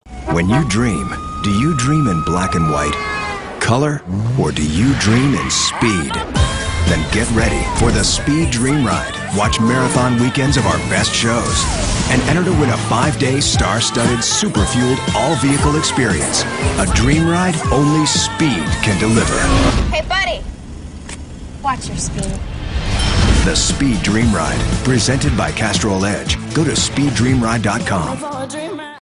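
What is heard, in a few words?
American English, Commercial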